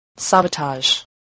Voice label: "sabotage", English spelling